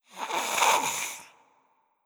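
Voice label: Servant voice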